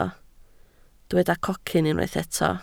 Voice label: "cocyn", Welsh

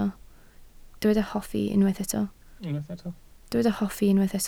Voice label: "hoffi", Welsh

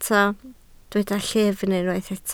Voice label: "llyfn", Welsh

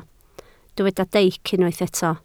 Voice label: "deic", Welsh

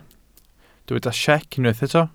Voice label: "siec", Welsh

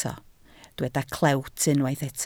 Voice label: "clewt", Welsh

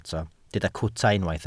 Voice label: "cwta", Welsh

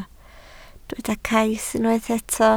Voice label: "cais", Welsh

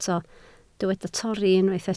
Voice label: "torri", Welsh

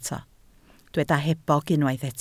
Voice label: "hebog", Welsh

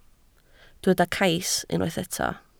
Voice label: "cais", Welsh

